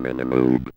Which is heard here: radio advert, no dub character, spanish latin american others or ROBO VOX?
ROBO VOX